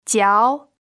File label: "jiao2", Mandarin pinyin